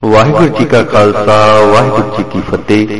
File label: Waheguru JI Ka Khalsa Waheguru JI Ki Fateh Voice Ringtone